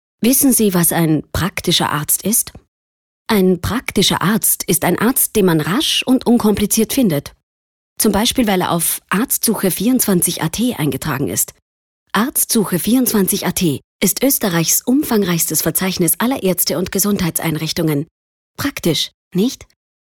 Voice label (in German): Werbung Neutral